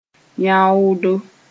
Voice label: "yãoodo", moore

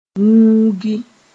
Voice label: "wũugi", moore; clean out (well)

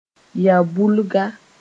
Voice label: "ya-bulga", moore

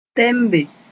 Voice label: "tembe", moore

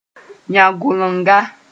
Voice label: "yã-gʋlenga", moore

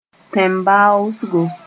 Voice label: "tẽn-baoosgo", Moore

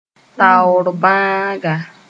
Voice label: "taoor-bãaga", moore

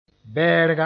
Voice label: "bɛɛrga", moore

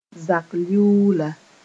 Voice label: "zakliuula", moore

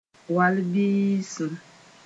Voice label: "wall bĩisim", Mooré

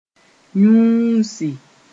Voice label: "yũusi", moore

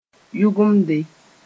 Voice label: "yʋgemde", moore

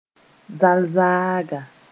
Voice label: "zalzaaga", moore

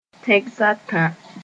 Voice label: "tẽgs a tã", Moore

